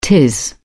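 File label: "tis", British English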